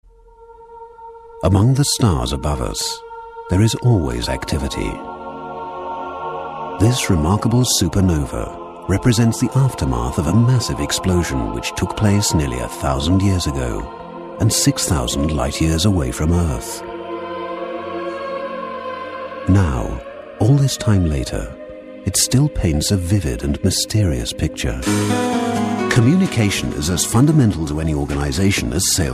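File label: English British, male, narration; voice demos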